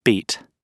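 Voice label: "beat", British English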